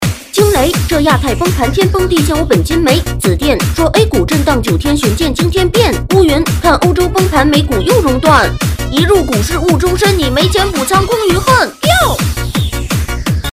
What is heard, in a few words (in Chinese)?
dj男童